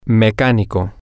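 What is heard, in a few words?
Spanish, male; mechanic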